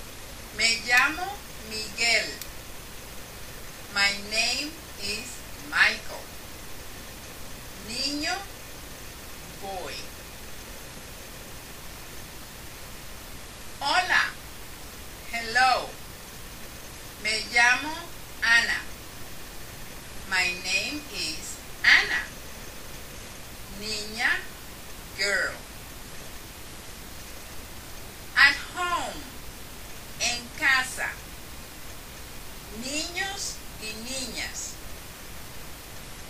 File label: Boys and Girls Spanish